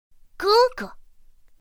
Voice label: "gēge", pinyin; pinyin2; pinyinji